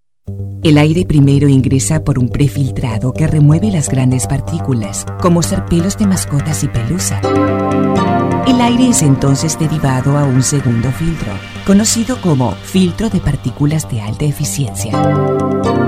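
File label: spanish female voice Neutral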